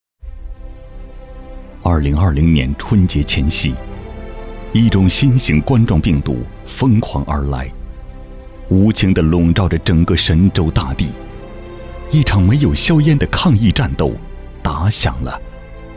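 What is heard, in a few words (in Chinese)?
专题大气; 抗疫试听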